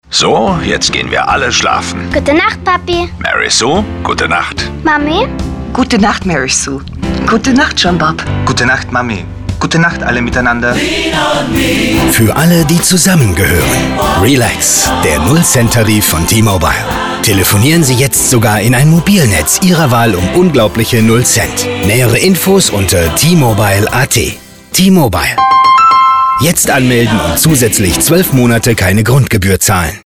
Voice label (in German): Hörfunk; Relax